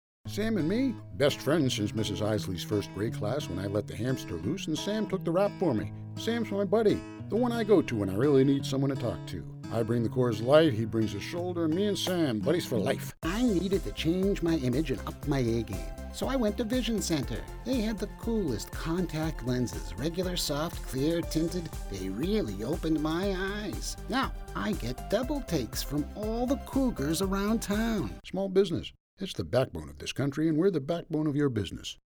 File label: commercial